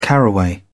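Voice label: "caraway", English, British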